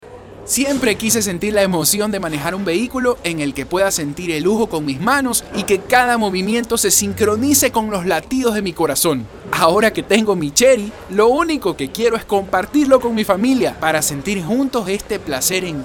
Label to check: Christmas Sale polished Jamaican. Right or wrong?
Wrong